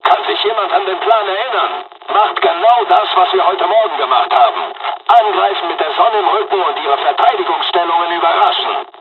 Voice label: dialog, dialogs german; War Thunder; midway